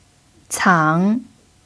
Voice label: "cang3", pinyin